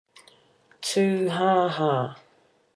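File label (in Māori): "tuhaha", Māori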